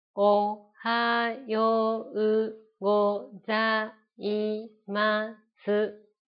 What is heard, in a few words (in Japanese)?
ナレーション